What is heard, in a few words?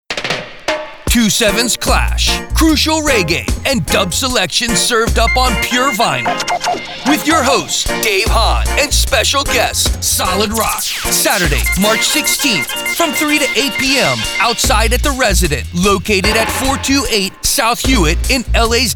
Promo Ad